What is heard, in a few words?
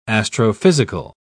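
"astrophysical", British English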